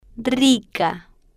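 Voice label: "rica", spanish, female, slow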